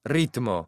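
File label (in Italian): "ritmo", Italian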